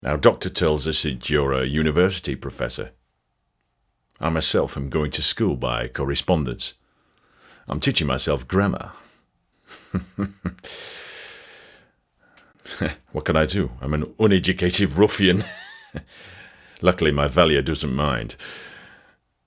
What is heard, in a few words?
Northern accent; Commander